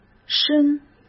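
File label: "伸", Mandarin Chinese; chinese flashcards; hsk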